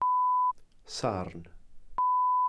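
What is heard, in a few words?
"sarn", Welsh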